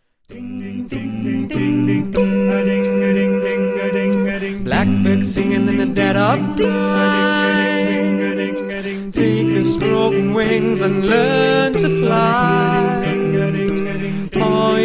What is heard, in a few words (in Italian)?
dialetto